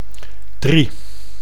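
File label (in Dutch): "drie", Dutch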